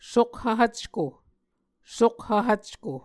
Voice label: "sokhv hvcko", Muscogee